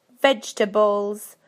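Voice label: "vegetables", British English; PictureDictionary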